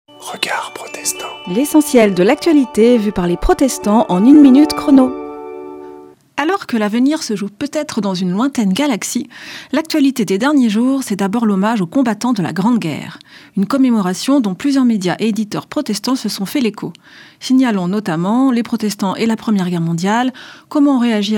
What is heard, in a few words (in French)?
Spot radio; Regards protestants